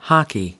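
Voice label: "hockey", American English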